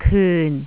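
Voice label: "kyun4", Cantonese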